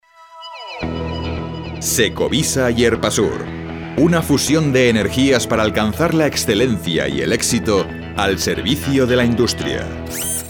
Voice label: spanish corporate videos